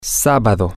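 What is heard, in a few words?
Saturday, Spanish, male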